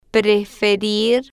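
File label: "preferir", Spanish, female, slow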